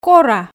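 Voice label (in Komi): "кора", Komi, female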